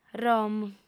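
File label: "rom", Sardinian, female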